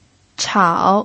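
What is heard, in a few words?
"chao", pinyin, third tone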